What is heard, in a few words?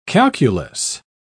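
"calculous", English, British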